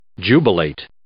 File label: "jubilate", English